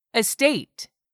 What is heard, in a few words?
"estate", English; 3000 words